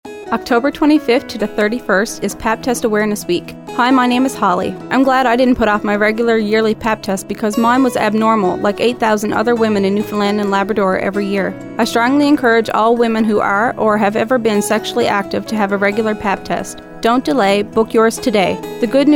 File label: Radio Ad; Pap Test Awareness Week